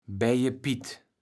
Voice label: "Beijepitt", platt

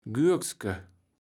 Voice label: "Gürske", Platt

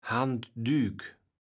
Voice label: "Haanddük", Platt

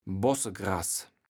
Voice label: "Bossegras", platt

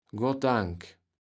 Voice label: "Goddank", Platt

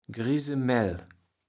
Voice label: "Griese Mäll", Platt

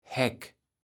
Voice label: "Häck", Platt

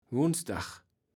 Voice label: "Gunsdag", Platt

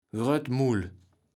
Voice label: "Gröttmull", Platt

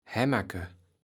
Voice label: "Hämerke", Platt

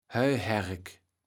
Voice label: "Heuhärk", Platt

